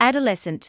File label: "adolescent", medical english